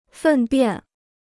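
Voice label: "粪便", Chinese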